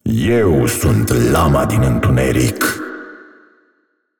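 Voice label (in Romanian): assist, Romanian; Zed; bloom minigame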